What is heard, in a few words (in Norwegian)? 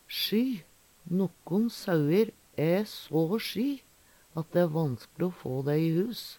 sjy, Numedalsmål; Eksempel på bruk Nokkon sauir æ så sjy at dei æ vanskle o få i hus.